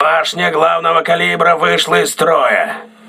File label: main caliber turret damaged, crew ships russian